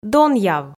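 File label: "донъяв", Komi, female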